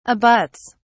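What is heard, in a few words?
"abuts", English, British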